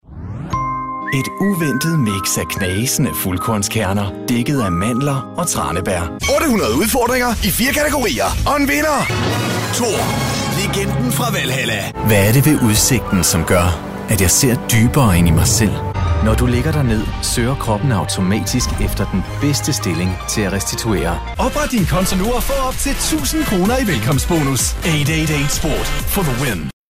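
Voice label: Danish, male, Commercial medley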